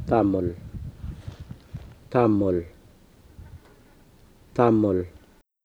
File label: "thamul", Murrinh-Patha; ʈamul; Laterals; muɭ